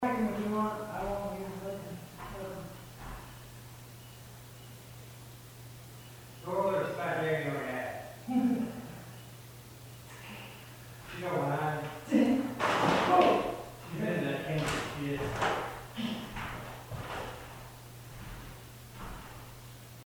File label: Female voice; Case 020 Ballard Building